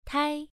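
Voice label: "tai", Mandarin Chinese syllable, first tone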